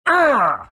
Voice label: pain; bristleback

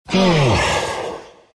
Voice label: happy; dragon; dota; dragon knight

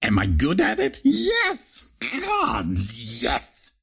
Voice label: monologue; ringmaster

